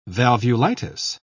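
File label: "valvulitis", British English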